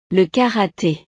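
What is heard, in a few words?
"le karate", French; deportes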